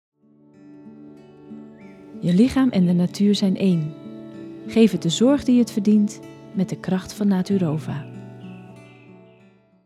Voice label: voice over; Naturova